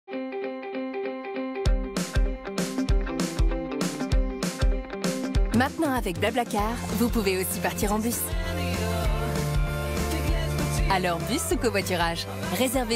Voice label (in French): bus Publicité; BlaBlacar